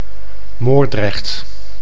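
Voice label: "Moordrecht", Dutch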